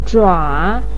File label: "zhuǎ", voice pinyin